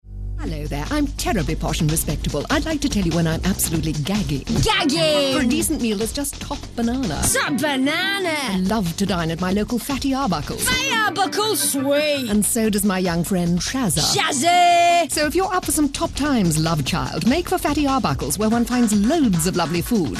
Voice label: posh common, readings